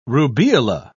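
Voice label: "rubeola", English, British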